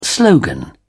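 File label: "slogan", British English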